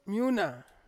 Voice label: "miuna", Ansus; rain

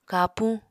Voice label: "kapuñ", Apatani

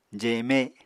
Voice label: "jeme", Apatani

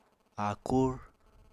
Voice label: "áakúr", Apatani

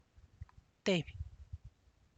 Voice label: one, Santiago Matatlán Zapotec; (Spanish) uno

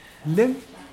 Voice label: beautiful, Mokilese

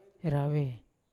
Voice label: "rave" to do, Yawa

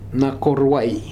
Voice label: "Nakoruai", futuna aniwa

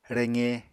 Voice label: "reqe", Apatani

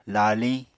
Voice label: "laaliñ", Apatani

